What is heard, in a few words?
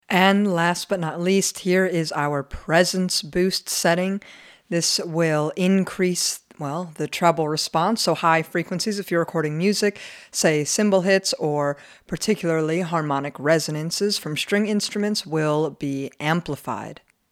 Shure SM7b voice presence boost response